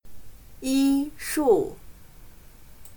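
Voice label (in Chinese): "医术" Mandarin Chinese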